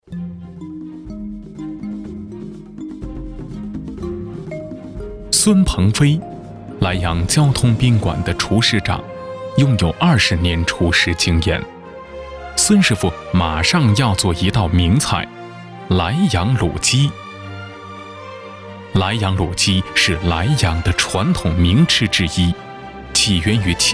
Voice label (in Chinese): 男国 纪录片; 莱阳卤鸡; 舌尖